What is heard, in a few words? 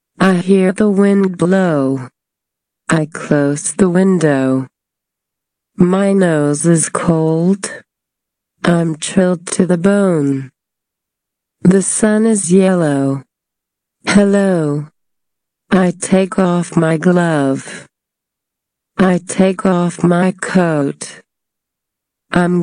Slow; 初階課程聽力練習三